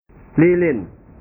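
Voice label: "lilin", Indonesian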